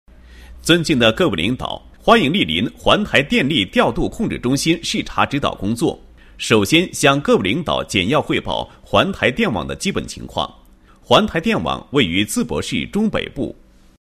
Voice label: 男 工作汇报; 桓台调控中心

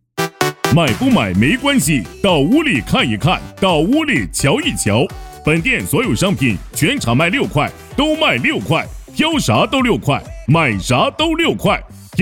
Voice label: 男 百货促销

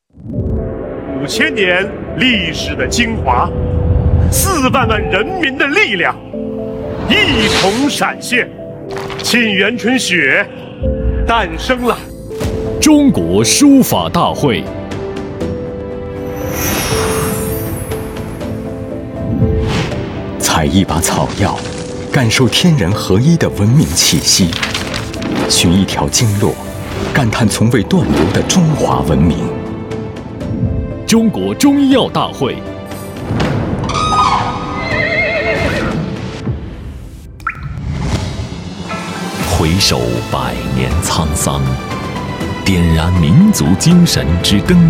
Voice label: 男 宣传片; 央视综合频道

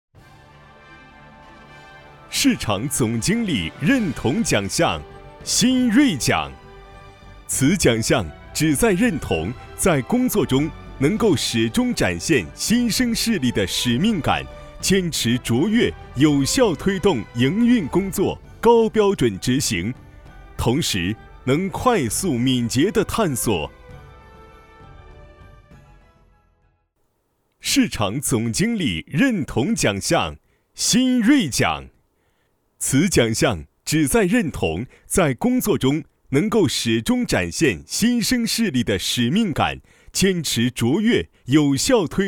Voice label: 男 颁奖; 新锐奖

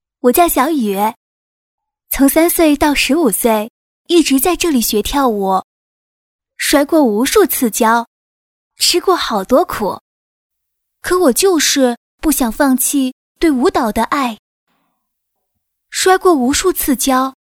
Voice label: 女 旁白 15岁小雨

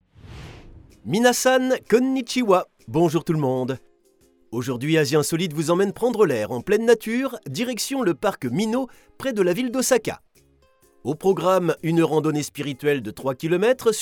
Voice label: 法语男 欢快; Intro-Asie-Insolite-TV-Mag

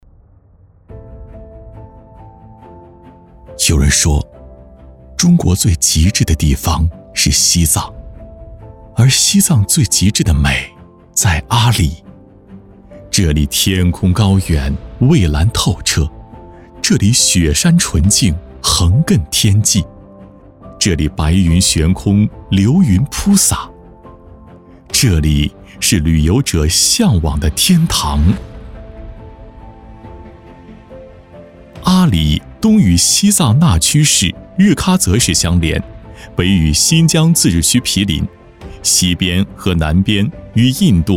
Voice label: 男 宣传片 大气; 阿里

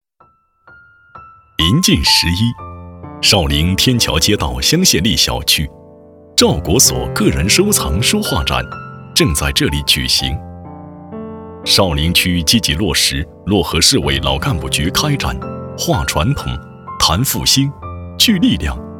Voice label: 男 走心情感类 老干部局

